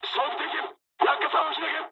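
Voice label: bailout mood high, speech japanese; War Thunder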